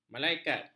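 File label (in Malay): "malaikat", Malay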